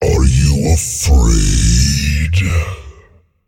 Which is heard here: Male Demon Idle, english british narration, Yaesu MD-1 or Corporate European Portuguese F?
Male Demon Idle